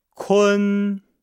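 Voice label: "kun", Chinese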